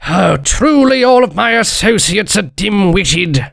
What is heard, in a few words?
jeers